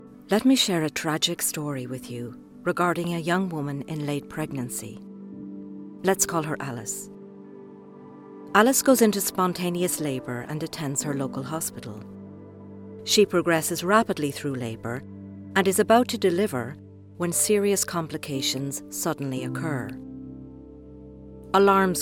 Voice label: Narration Education southern Irish accent